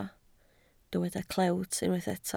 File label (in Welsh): "clewt", Welsh